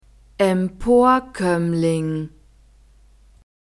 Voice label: "emporkoemmling", German